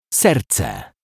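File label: "serce", Polish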